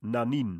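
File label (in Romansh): "nanin" Romansh, Sursilvan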